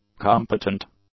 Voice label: "competent", English; adjectives